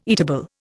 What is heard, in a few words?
"eatable", Indian